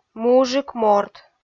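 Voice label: "мужик морт" Komi, female; kpv audio dict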